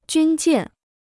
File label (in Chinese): "军舰", Chinese